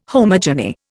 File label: "homogeny", Indian